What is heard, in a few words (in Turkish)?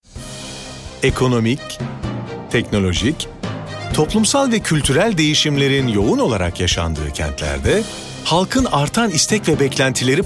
tanitim, sicak